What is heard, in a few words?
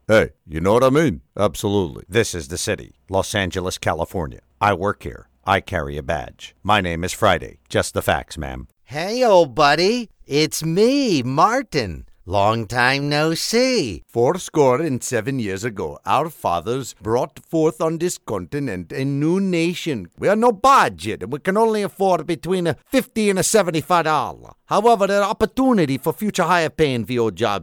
Voice Characterization